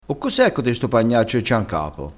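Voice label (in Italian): "pagnaccio", dialettale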